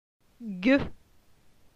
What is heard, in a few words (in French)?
"le son gue", francais; phonetique